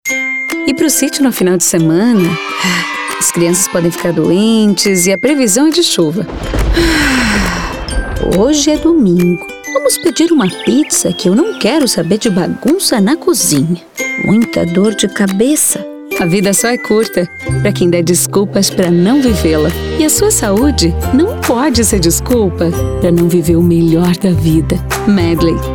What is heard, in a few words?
portuguese brazilian promos